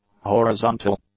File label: "horizontal", English; adjectives